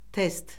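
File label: "test", Azerbaijani; Orfoqrafiya Lüğəti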